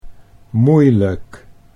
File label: "moeilijk", Dutch